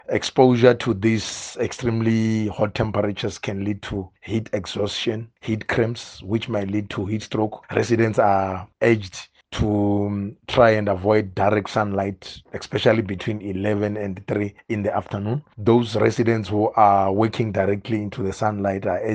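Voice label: English; Hot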